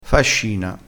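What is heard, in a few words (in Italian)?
"fascina", vocabolario dialettale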